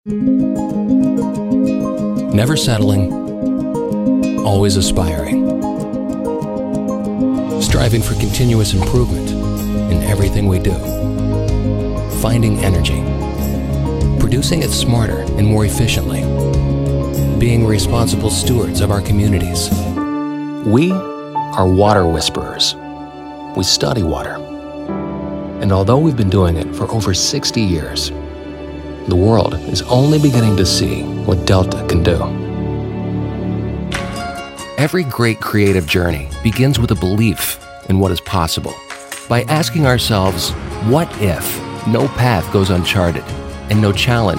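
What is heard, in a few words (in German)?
Mann 40 50 jahre Mittel, English; NATIVE PROFISPRECHER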